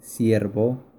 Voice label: Deer spanish

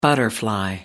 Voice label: Butterfly english

